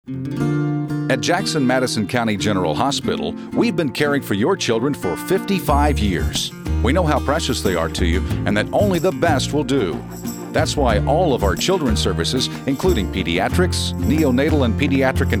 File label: medical center radio